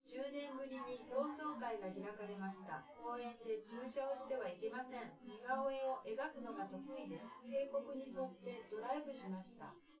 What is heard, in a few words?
female synth conv; SiSEC10; underdetermined